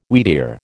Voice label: "wheatear", American